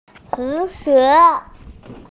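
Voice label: "hu die", Mandarin Chinese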